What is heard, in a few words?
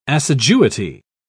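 "assiduity", British English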